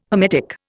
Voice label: "hermetic", Indian